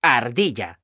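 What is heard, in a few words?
"ardilla", Spanish; mekanta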